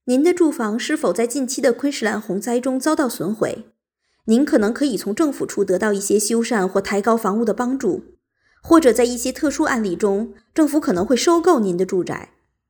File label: simplified chinese